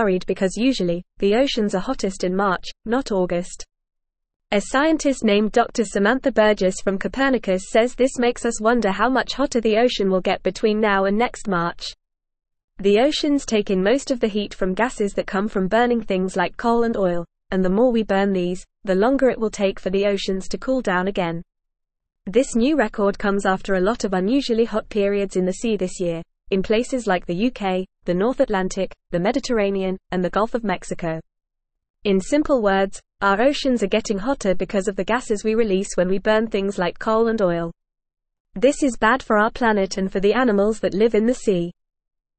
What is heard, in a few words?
Lower Intermediate FAST Reading, English; English Newsroom; The Ocean is Getting Hotter and Thats Bad